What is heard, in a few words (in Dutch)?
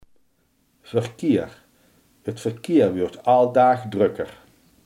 "verkeer", limburgse